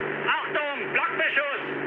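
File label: German damaged aa mood low, speech; War Thunder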